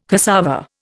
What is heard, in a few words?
"cassava", Indian